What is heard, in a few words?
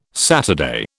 "Saturday", British